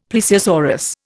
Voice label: "plesiosaurus", Indian; Dictionary

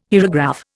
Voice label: "hierograph", Indian

